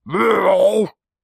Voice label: Amanita Angry